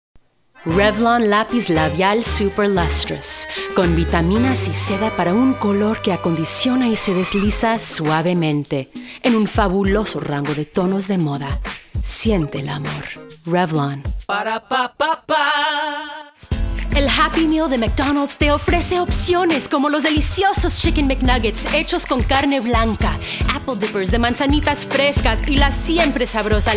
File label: VO Spanish, Voice Over; Reel